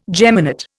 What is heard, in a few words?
"geminate", Indian